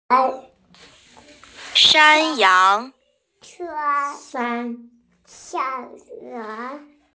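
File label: "shān yáng", Mandarin Chinese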